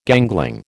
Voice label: "gangling", American

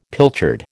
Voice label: "pilchard", American

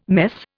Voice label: "mess", Indian

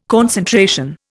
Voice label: "concentration", Indian

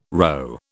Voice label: "rho", British

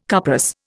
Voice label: "cuprous", Indian

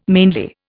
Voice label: "mainly", Indian

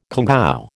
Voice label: "congou", American

